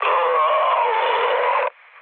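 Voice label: Serbian damaged pilot mood low, speech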